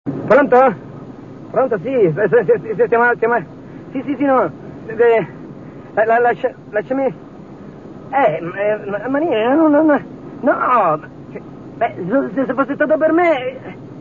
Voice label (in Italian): Telefonata